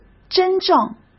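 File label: "真正", Mandarin Chinese; chinese-flashcards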